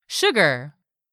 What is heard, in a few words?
"sugar", english; 3000 words